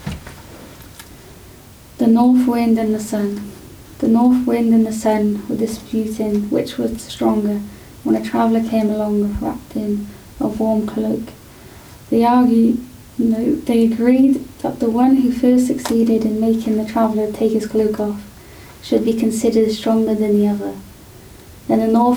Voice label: L1 English; LnNor Corpus; Controls English